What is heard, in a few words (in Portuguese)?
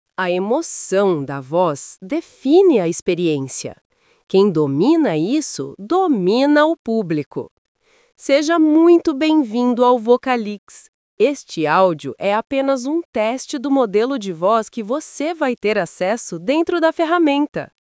Narração Sarcástica